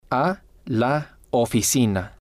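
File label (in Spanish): a La Oficina, spanish, male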